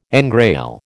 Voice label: "engrail", American